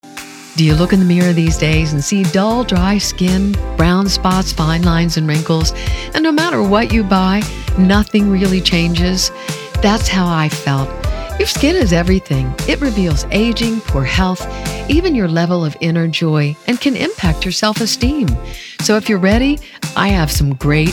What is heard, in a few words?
commercials; dermaluminese; pearl